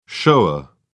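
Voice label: "shower", British English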